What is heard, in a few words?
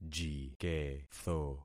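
"jiketho", concatenated syllables; Affixing experiment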